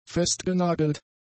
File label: "festgenagelt", german; adjectives